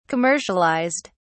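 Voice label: "commercialized", English, British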